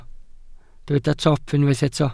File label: "top", Welsh